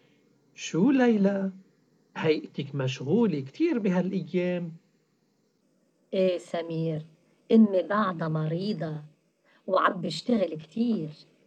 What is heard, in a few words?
dialogue, Arabic MSA